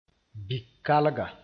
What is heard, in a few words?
"bikalga", moore